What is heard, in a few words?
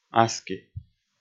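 "аски", Komi, male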